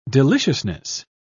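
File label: "deliciousness", British English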